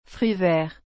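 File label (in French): "fruit vert", French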